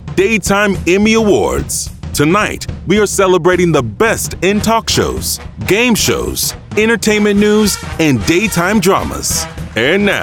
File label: live announcer men; show and stadium announcers